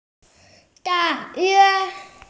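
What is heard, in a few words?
"da4yue1", Mandarin Chinese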